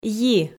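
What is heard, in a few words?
"йи", Komi, female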